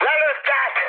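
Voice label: Hungarian aircraft destroyed mood high, speech; War Thunder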